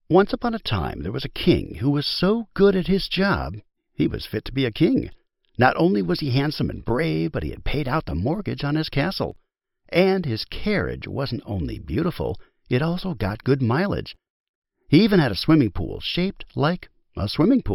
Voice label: Humorous Narrative